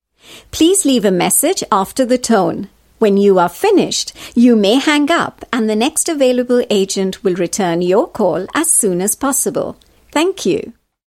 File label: Indian English Telephone Voice prompt, Female